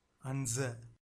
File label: "anza", Tamil; அன்za; அன்(ட்)ஸ